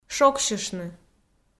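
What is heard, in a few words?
"шогсьыштны", Komi, female; kpv audio dict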